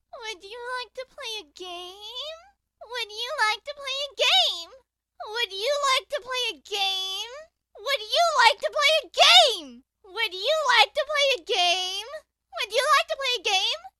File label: Child Demonic Question Would You Like To Play A Game